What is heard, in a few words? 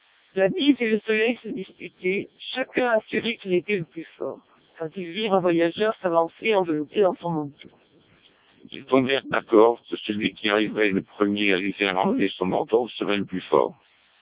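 French speech, CODEC2 vocoder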